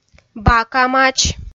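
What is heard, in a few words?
"бакамач", Komi, female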